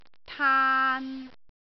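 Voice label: "taan3", Cantonese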